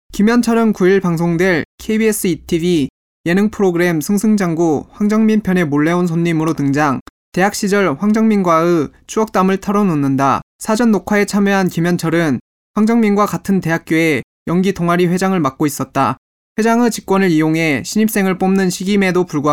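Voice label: korean male narration; voice demos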